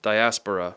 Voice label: "diaspora", English